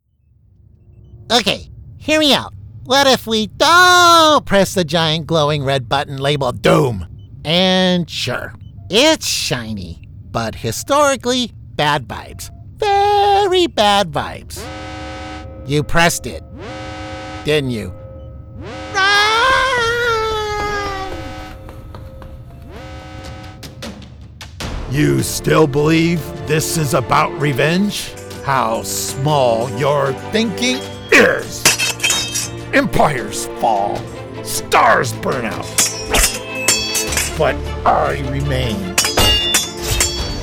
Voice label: Character